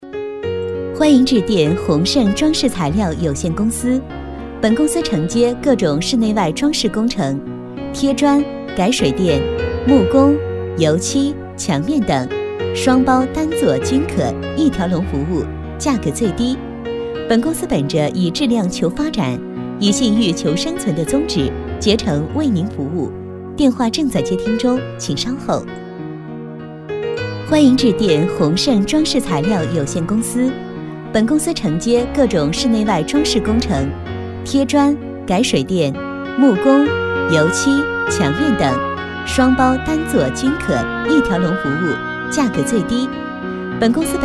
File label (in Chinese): 女 样音